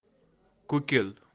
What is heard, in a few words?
"Kukil", kashmiri; kashmiri birds